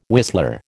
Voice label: "whistler", American

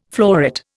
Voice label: "floret", Indian

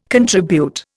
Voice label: "contribute", Indian

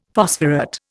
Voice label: "phosphorate", Indian; Dictionary

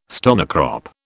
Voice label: "stonecrop", British

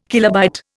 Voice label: "kilobyte", Indian

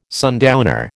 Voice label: "sundowner", American

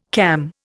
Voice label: "cam", Indian

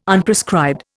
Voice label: "Unprescribed", Indian

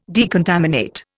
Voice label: "decontaminate", Indian

